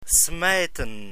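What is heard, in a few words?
"smijten", Dutch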